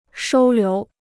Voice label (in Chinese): "收留", Chinese